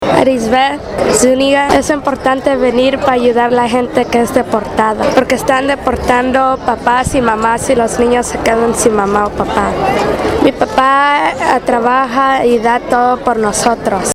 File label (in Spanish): Entrevista Marcha